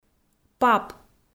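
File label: "пап", Komi, female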